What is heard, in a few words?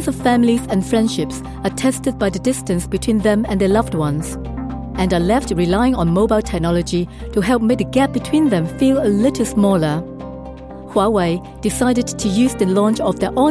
Commercial (English with Chinese accent)